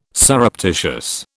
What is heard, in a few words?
"surreptitious", British